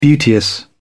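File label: "beauteous", British English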